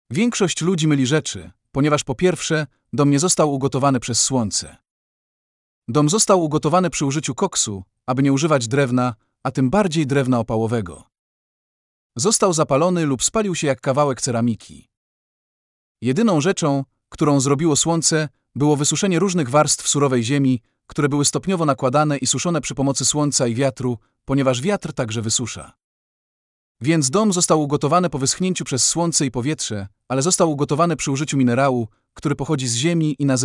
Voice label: POLISH